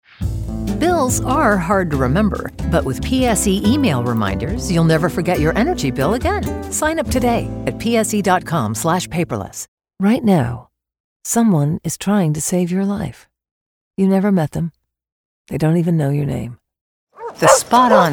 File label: Commercial